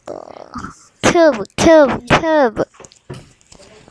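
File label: "cube", spoken word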